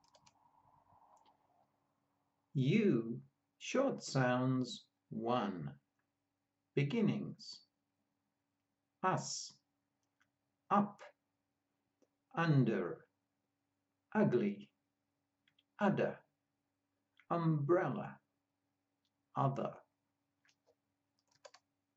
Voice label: U short sounds, pronunciation of australian english